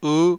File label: "u", vowel